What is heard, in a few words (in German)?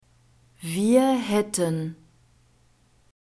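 "wir hätten", German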